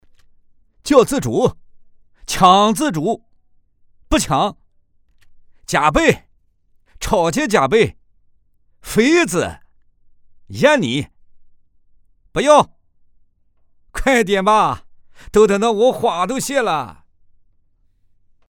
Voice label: 男 方言合肥 棋牌

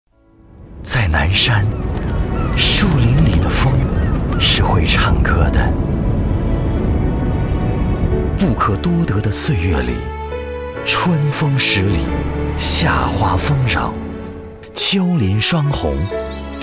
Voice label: 男 国语男声配音

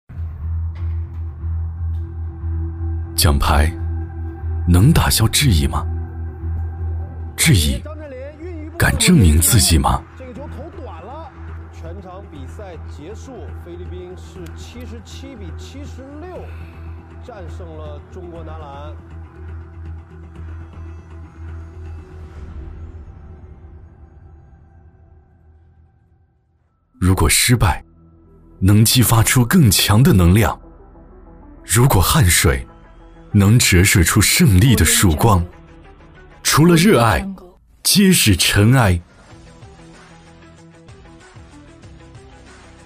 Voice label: 男 走心 赛事 开赛宣传片; CBA开赛宣传片

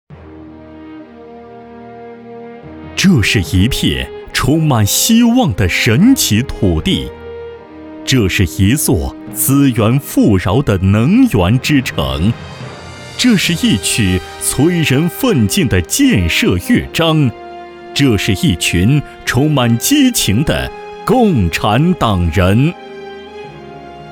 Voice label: 男 大气专题; 淮南首创

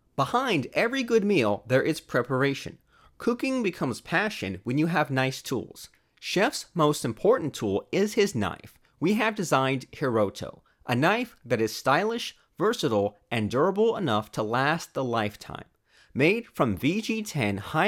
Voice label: 干音

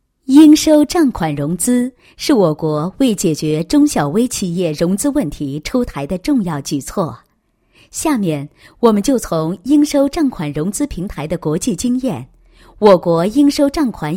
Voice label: 女 宣传广告; 应收账款融资服务平台介绍与推广

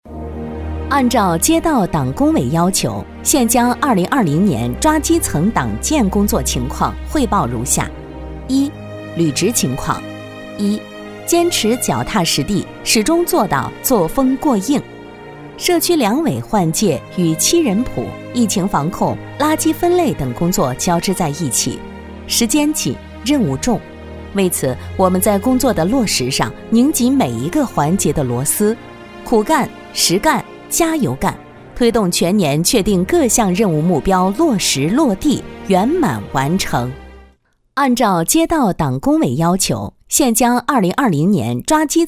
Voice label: 女 基层党建工作述职报告

